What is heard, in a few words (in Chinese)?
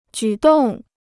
"举动", Chinese